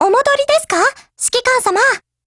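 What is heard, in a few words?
HELLO, Japanese; GF Alarm; MP40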